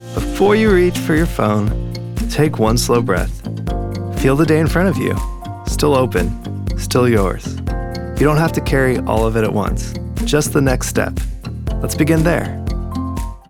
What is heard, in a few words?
wellness morning intention, gentle grounded